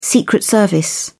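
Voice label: "secret service", British English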